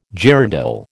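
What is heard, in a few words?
"girandole", American English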